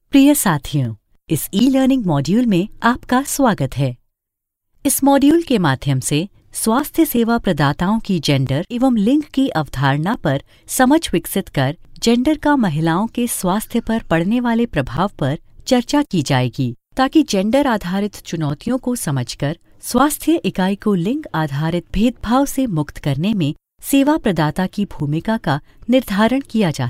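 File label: Indian e-learning Hindi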